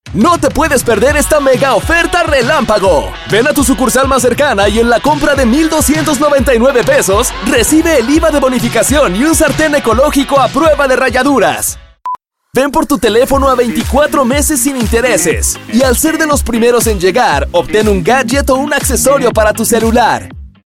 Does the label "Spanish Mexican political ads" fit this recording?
Yes